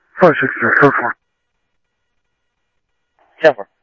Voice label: Scottsdale PD Scottsdale Police, scanner audio

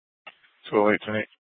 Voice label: Police South Dispatch; Scottsdale Police

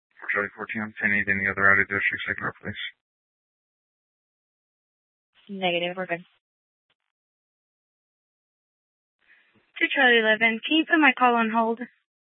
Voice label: Mesa PD Fiesta Dispatch

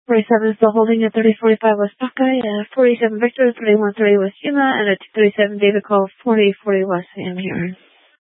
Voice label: Police Dispatch

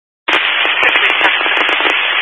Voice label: Highway Patrol, scanner; DPS; Dist 06 Casa Grande

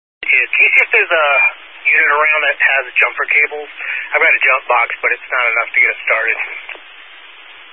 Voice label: Highway Patrol, scanner; DPS EAST; Dist 13 Phoenix Metro East Area

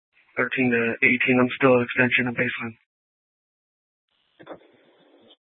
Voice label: PD Dispatch, scanner; TOPAZ Regional Wrlss Cooperative (TRWC) - Mesa Simulcast; Mesa PD Dptmnt; 1 Fiesta Dispatch

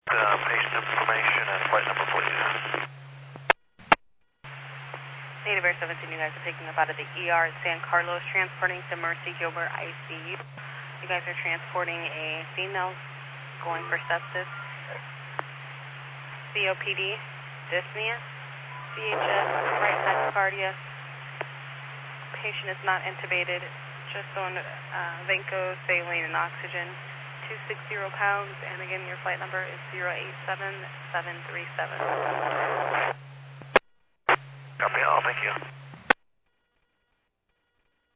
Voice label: Medevac, scanner; NativeAirAmb

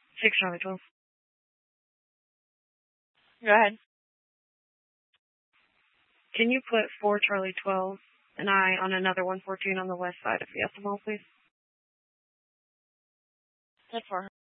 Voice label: Mesa PD Dispatch

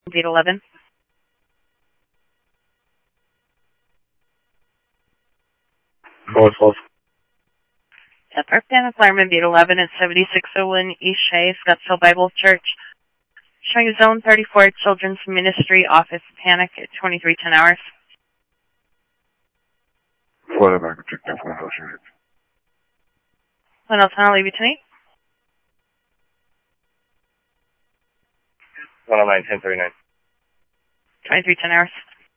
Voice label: police, scanner audio

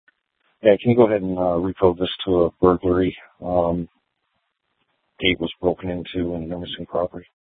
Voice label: Sheriff scanner; Maricopa County (P25) - Thompson Peak; Shrf Mnt (Parks)

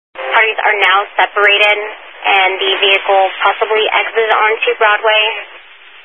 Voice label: Highway Patrol, Phoenix Metro East Area, scanner

